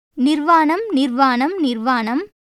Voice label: Tamil; நிர்வாணம்; nirvāṇam; [n̪irvɐːɳəm]; nudity; 裸